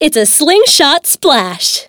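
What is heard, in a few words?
waterballoon welcome voice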